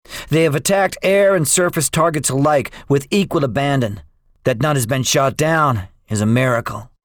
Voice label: debriefing, english; War Thunder

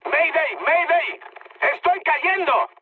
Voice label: aircraft destroyed mood high; War Thunder

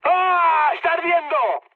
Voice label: on fire, mood med, speech; War Thunder

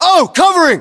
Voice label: response covering, battlechatter us

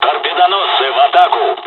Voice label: japanese first wave, dialogs russian; War Thunder

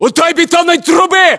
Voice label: landmark near concrete pipe, battlechatter ru

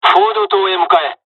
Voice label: fly, dialogs japanese; War Thunder

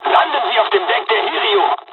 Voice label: landing, dialogs german; midway jpn; War Thunder

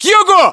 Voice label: direction relative south, ru

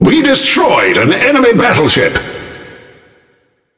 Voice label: Speech Destroy Enemy Battleship, EN GB; World Of Warships

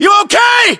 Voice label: status check, us

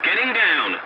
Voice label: voice message landing, english; War Thunder

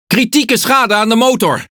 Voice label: Speech Defective Modules Engine Damaged, NL; World Of Warships

